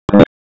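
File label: EMS DISP, radio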